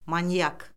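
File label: "manyak", Azerbaijani; Orfoqrafiya Lüğəti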